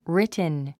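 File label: "written", English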